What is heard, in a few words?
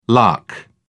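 "lakh", British English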